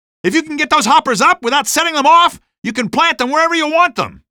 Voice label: mine help, male; Half-Life 2; streetwar; barricade